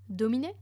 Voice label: my lord, romans female